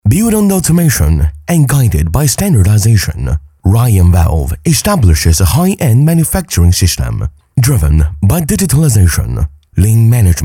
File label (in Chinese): 男 英文宣传片 年轻磁性